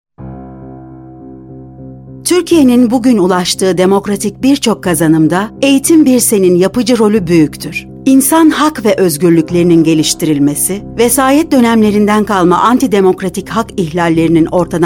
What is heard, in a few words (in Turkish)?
tanitim; egitim birsen